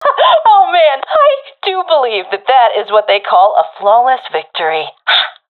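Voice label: round won nocasualities, professional female; vo; agents; Source Model Packs; Counter Strike Model Pack; CS2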